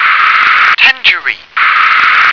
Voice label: "tangerine", English